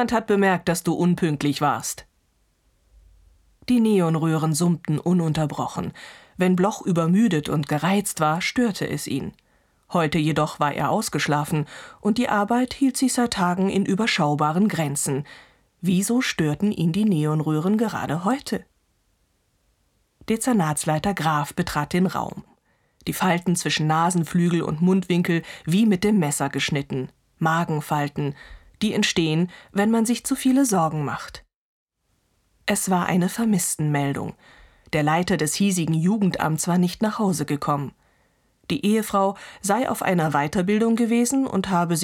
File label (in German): krimi hoerbuch, sprecherin; schauspielerin